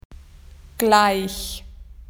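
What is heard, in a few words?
"the same", Australian English; PictureDictionary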